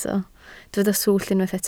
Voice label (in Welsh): "swllt", Welsh